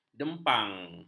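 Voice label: "dempang", Malay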